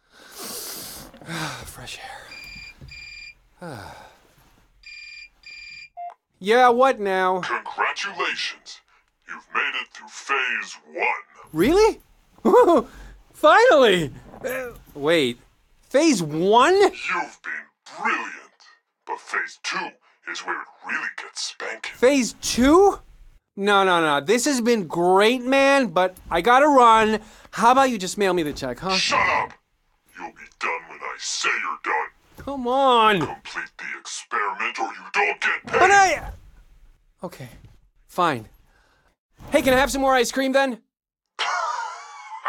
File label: tutorial faster; Blind Drive